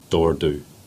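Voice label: "Blackwater" Cornish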